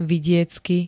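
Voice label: "vidiecky", Slovak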